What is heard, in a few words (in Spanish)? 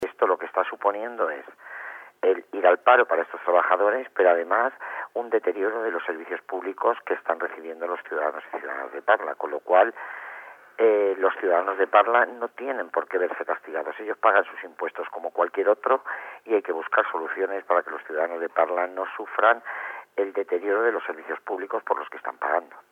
noticias